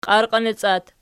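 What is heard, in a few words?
"qiarqinoci" locative, Archi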